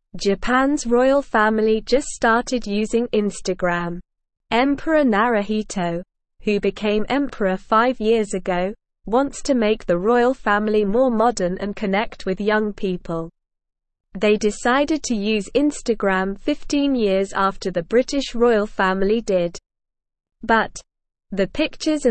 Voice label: English Lower Intermediate SLOW Reading; The Japanese Royal Family Joins Instagram